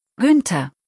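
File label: "Günther", German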